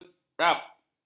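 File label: "cerap", Malay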